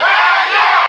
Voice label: "rahyeah", voice; ooh ahh